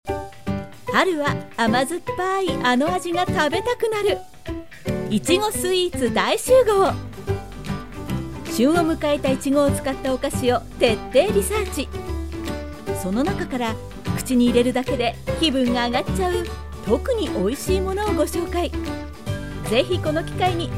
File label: narration